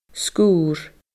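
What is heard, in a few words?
"sgurr", Scottish Gaelic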